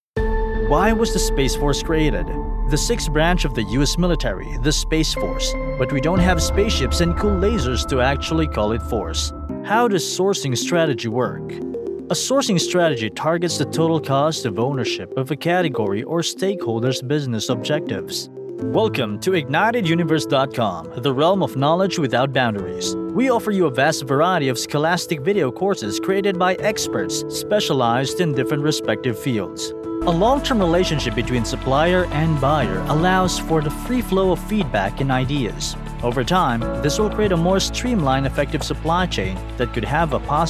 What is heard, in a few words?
english corporate videos